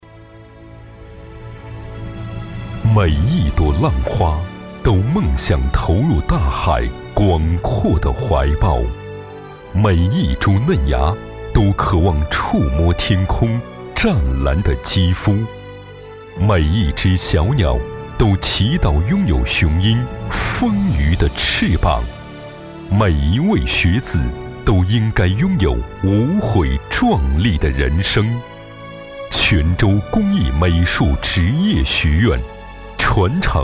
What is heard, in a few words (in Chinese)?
男 专题